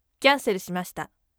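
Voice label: voice cancel shimashita firm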